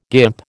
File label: "guimp", American